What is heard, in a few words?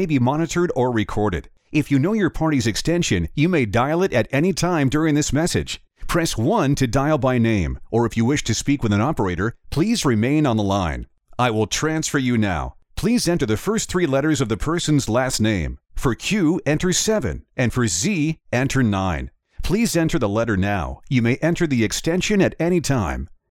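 American English, male, voice over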